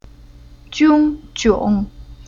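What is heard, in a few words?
"Jiong", Chinese syllable; Уроки фонетического блока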